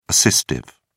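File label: "assistive", British English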